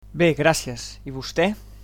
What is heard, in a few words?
"fine", Catalan